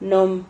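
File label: "nom", Spanish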